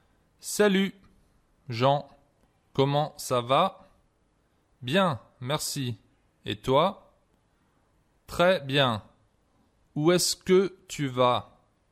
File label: Conversation, French